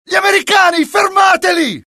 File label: alert, dialogue IT; mohaa; attack